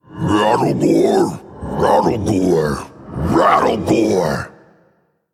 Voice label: Male Undead Bark

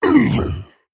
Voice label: Male Ogre Death; Bloodmaul Mauler; Story Minion

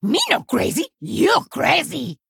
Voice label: Male Kobold

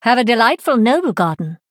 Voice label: Female High Elf HAPPY

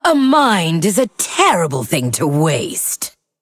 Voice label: Female Undead

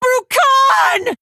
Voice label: Female Orc; Rokara

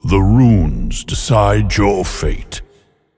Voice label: male eternal one, Play

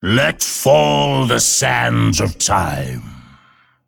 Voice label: Male Drakonid Play

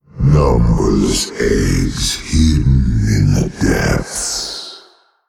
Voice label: Male; Old God; HAPPY NOBLEGARDEN

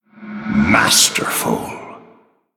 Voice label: Male Night Elf Well Played; Malfurion Deathknight

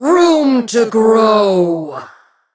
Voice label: Female Botani Attack

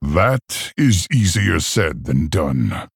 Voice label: Male Orc greetings

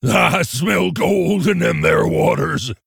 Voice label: Gold Panner Orc Emote Play

